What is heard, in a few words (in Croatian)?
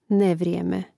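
"nevrijeme", Hrvatski; mreznik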